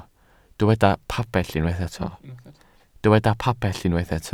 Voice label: "pabell", Welsh